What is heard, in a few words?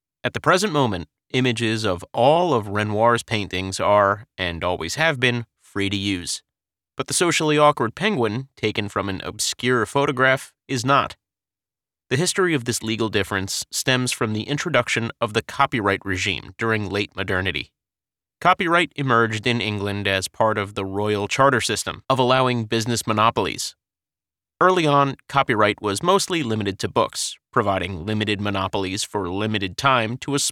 Audiobook